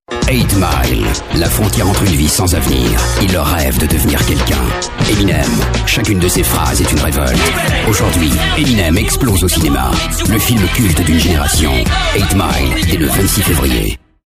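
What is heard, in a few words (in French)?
Belgian French, male, commercial; voice demos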